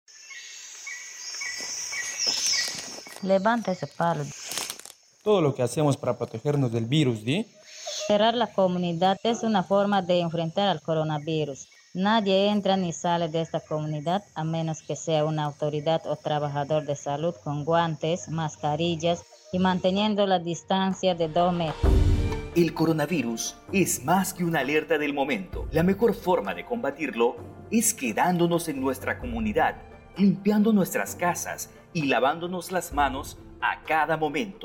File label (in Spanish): castellano, spot de radio; pista amazonica; yo me quedo en mi comunidad; aidesep